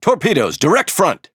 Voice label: Speech Alarms; torpedo ahead; World Of Warships

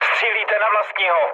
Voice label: player friendly fire, speech cze, mood low

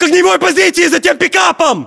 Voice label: order cover pickup, ru; localized english

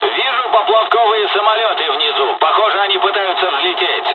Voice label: dialog, dialogs russian; War Thunder

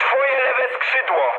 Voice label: left wing mood med, speech pol; War Thunder

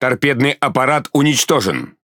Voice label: Speech Defective Modules Torpedo Destroyed, RU; World Of Warships

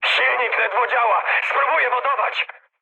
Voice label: damaged engine mood high, speech pol; War Thunder

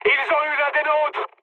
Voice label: aircraft destroyed mood high, speech fr

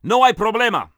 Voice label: Spain Order; Civ4

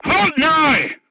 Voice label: voice message short stop, crew tanks portuguese

